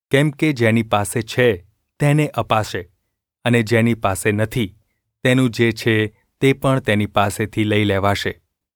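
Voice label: gu ulb mrk, Gujarati; mrk 4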